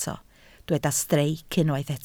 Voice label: "streic", welsh